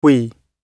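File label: "wee", British English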